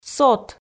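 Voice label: "сот", Komi, female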